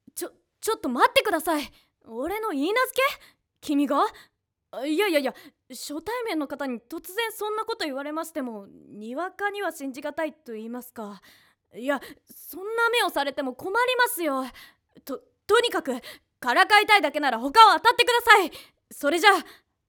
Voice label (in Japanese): ボイスサンプル 男の子